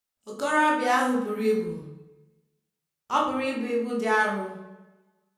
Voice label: female Igbo Room; Speech Quality Examples